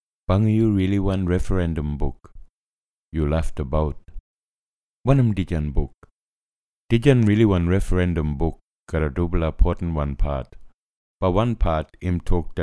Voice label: East Side Kriol; Referendum Booklet